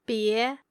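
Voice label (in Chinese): "bie", Mandarin Chinese, female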